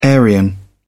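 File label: "aryan", British English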